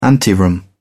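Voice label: "anteroom", British English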